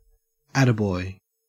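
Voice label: "attaboy" English, Australian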